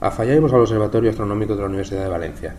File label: asturianu